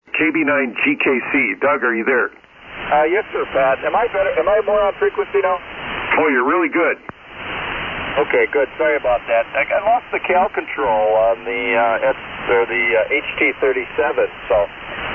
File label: Hallicrafters; Green Bay, Wi.